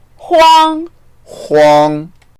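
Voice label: "huang1" Mandarin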